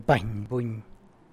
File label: The man wakes up, San Lucas Quiaviní Zapotec; Rbany buny.